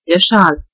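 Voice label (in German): "scarf", German; gcompris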